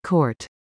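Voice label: "COURT", English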